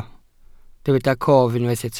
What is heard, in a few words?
"cof", Welsh